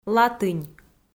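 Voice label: "латынь", Komi, female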